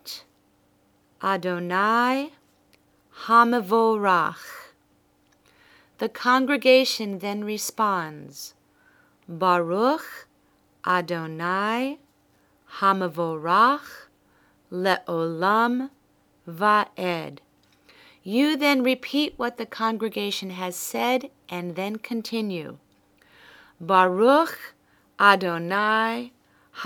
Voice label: before and after recited